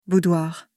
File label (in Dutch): Vrouw uitspraak boudoir